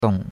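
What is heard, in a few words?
"dong3", Chinese; Cours-de-Chinois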